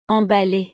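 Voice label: "emballee", French; estados animo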